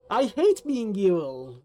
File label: hate evil, sfx